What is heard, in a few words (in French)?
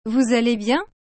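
"Vous allez bien?", French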